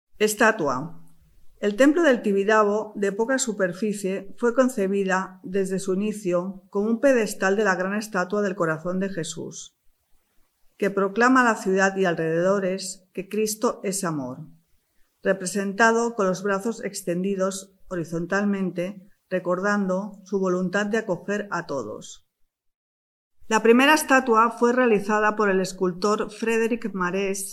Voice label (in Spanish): Audio Espanol; TIBIDABO; audioguias